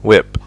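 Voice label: "whip", English; fonetica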